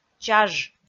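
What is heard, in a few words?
"чаж", Komi, female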